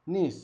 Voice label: "agua", Zapoteco Magdalena Ocotlan; Fenómenos; INALI; Haspelmath

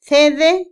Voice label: reír, Otomí, mujer; Emociones; INALI

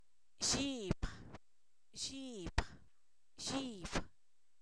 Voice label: Zapoteco, san miguel albarradas; rodilla; cuerpo; INALI

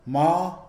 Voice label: "dónde", Mixe, hombre; Cognición; INALI

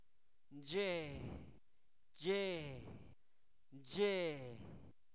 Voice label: día, Zapoteco, santo domingo albarradas; fenomenos naturales

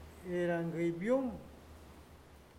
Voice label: cerillo, Huave

